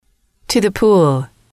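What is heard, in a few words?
to the pool, female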